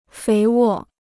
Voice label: "肥沃", Chinese